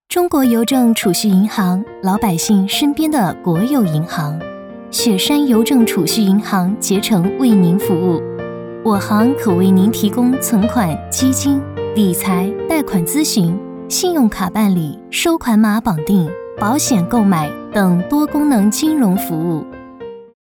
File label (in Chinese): 女 播报 亲切 温和 银行; 邮政银行